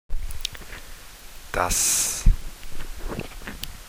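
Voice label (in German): "das", German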